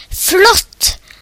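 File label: awesome, Finnish; gcompris-data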